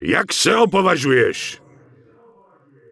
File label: Night Elf Male Official NPC Greeting; wowpreklad; czwow